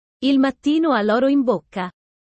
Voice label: italian sayings about life